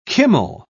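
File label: "kummel", British English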